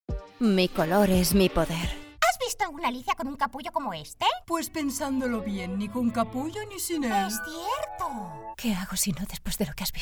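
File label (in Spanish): generico España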